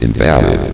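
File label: "invallid", male synthesized announcer voice; blizzard; hlvox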